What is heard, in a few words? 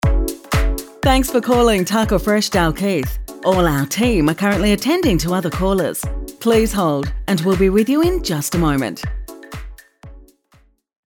IVR; Tucker Fresh Dalkeith